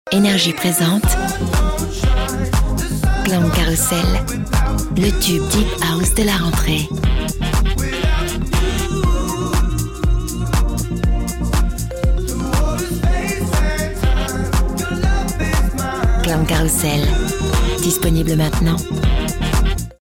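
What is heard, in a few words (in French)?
Sensuelle classe, comédienne voix off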